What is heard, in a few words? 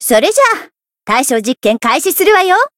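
Voice encount, Japanese; ashuthia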